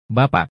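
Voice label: "bapak" Indonesian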